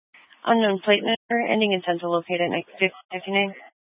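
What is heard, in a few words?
Incident Tactical, scanner audio recording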